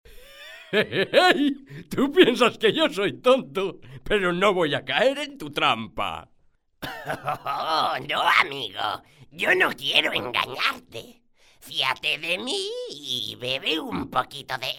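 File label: Spanish Spain male voice over, character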